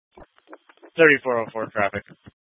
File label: Police Dispatch, scanner